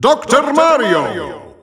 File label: Dutch Announcer; Dr. Mario; SSBU